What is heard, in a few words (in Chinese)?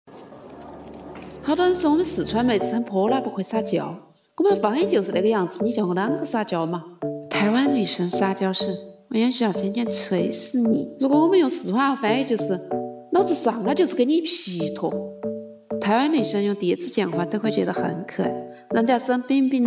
四川妹子 台湾女生